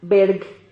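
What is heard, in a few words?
"berg", Spanish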